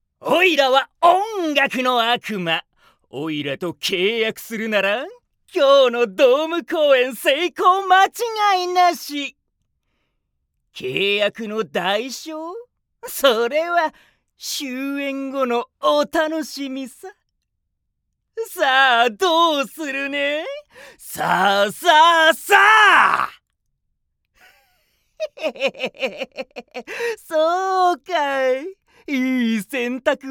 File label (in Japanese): 関西弁のおじさん